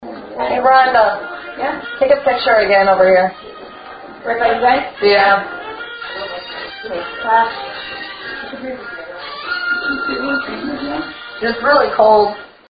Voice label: furniture room old woman; paranormal; elsings 2nd hand shop

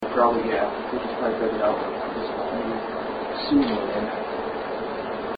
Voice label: basement voice close to recorder; chances

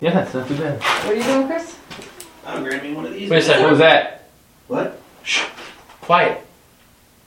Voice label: old basement childs voice; willow creek farm

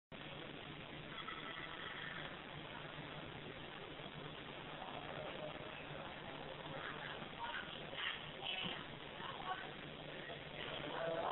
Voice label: bookroom hallway music and angry woman

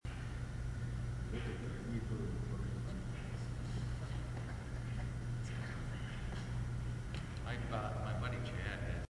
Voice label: riverside theater stage whispered female voice

